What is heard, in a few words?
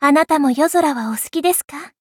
levelup ja; Cookie Run